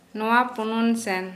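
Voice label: "around here", Santali, female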